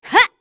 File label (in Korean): Vox Attack, kr; voices; heroes; Glenwys; kingsraid